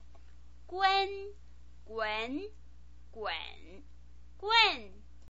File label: "gun", Chinese pinyin